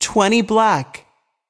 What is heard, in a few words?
voice over, roulette; frenchroulette3